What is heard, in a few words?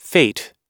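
"fate", English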